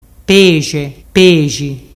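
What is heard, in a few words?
"pexe pexi", Ligurian dialect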